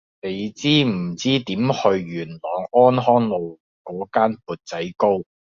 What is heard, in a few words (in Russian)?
Голоса Гонконгский; commonvoice